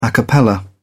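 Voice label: "a cappella", British English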